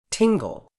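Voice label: "tingle", British English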